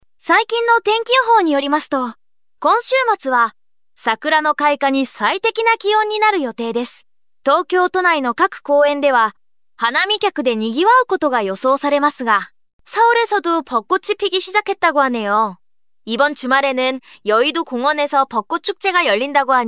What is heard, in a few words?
Japanese Korean